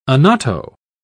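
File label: "annatto", British English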